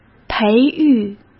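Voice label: "培育", Mandarin Chinese; hsk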